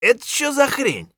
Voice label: mutter; Escape from Tarkov; scav4

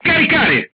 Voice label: voice message load, crew tanks italian

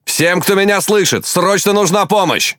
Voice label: Ru Speech Sos; Quick Commands

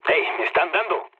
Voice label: aircraft damaged, mood low, speech; War Thunder

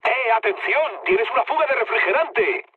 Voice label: damaged water, mood med, speech; War Thunder

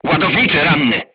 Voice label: voice message charger wounded, polish

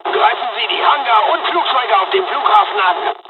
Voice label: japanese; first wave; War Thunder

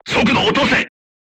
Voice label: voice message drive slowly, crew tanks japanese

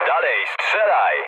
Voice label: attack position mood low, speech pol; War Thunder

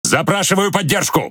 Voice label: Ru Speech Need Support; World Of Warships; Quick Commands

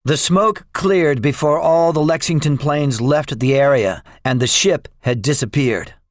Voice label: debriefing, english; War Thunder

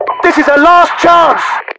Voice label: losing, localized english, UK voiceovers; mpvoice

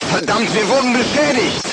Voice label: voice message player tank hit, crew tanks german

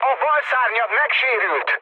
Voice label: left wing, speech hun; War Thunder

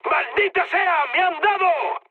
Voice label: aircraft damaged, mood high, speech; War Thunder

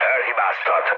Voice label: bomb failure, speech hun; War Thunder

